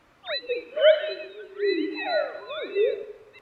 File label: garbled